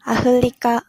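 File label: "Africa", Japanese female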